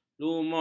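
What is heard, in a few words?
"lumut", Malay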